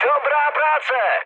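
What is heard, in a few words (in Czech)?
Czech player killed enemy, mood high; speech; War Thunder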